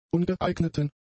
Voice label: "ungeeigneten", German; adjectives

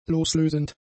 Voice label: "loslösend", German; adjectives

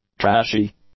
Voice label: "trashy", English; adjectives

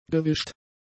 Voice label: "gewischt", German; adjectives

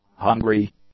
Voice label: "hungry", English; adjectives

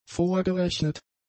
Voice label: "vorgerechnet", German; adjectives

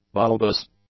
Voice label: "bulbous", English; adjectives

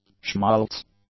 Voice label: "schmaltz", English; nouns

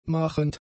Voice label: "machend", German; adjectives

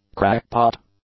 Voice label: "crackpot", English; nouns

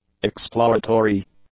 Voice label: "exploratory", english; adjectives

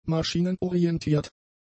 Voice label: "maschinenorientiert", German; adjectives

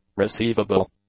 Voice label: "receivable", English; adjectives

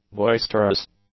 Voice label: "boisterous", English; adjectives

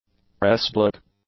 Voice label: "pressblock", English; nouns

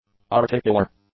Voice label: "articular", English; adjectives

